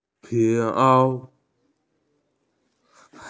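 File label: "fur coat", Mandarin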